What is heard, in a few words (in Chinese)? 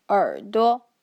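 "erduo", Mandarin Chinese; 耳朵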